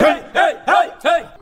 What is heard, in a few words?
"hey hey hey hey" male, voice; ooh ahh